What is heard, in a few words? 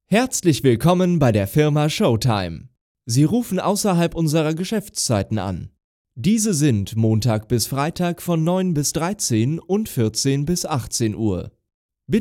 voice mail; firma showtime